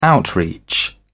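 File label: "outreach", British English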